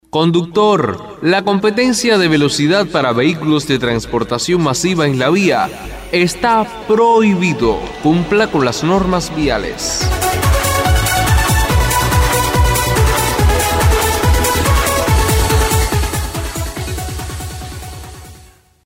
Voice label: spot competencias ilegales

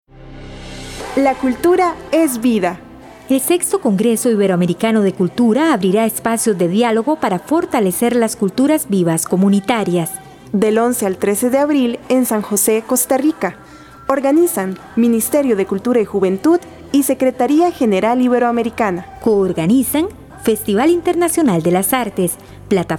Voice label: cuña

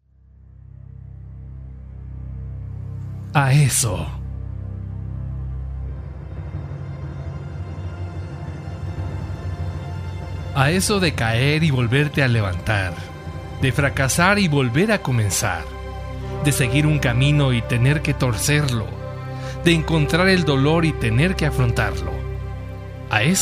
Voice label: locutor emotivo

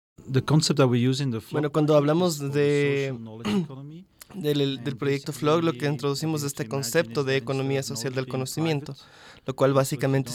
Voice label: castellano

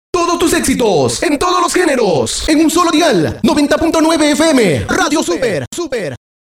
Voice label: cuña; todas tus canciones

